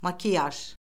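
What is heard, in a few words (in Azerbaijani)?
"makiyaj", Azerbaijani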